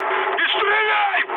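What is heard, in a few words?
player friendly fire, mood med, Russian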